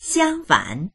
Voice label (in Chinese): "xiang1fan3", voices; 相反; 相反している